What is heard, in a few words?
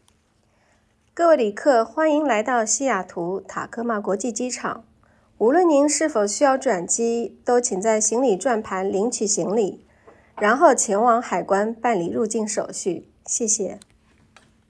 Mandarin; portofseattle; Bags First IAF